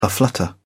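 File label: "aflutter", British English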